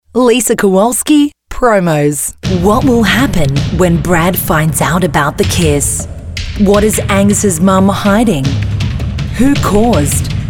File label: Promo